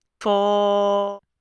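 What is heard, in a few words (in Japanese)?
"ふぉ", Japanese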